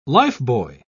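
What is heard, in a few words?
"lifebuoy", British English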